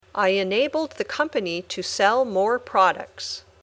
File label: Dictation